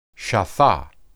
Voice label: "shathah", Hebrew

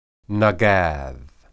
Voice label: "nagad", Hebrew

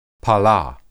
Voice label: "pala", Hebrew

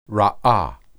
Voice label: feed, hebrew

